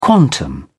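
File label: "quantum", English, British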